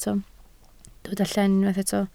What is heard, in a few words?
"llen", Welsh